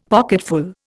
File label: "pocketful", Indian; Dictionary